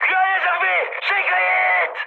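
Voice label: Korean bailout mood high, speech; War Thunder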